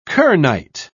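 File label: "kernite", British English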